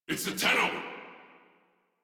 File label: Player Spotted, Battle Barks; Warframe; Corpus VIP A